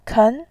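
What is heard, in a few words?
"ken2", Mandarin Chinese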